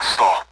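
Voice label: Speech Sound Russian Common AWACS Numbers; DCS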